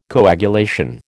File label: "coagulation", American